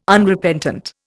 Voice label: "Unrepentant", Indian